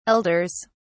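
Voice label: "elders", British English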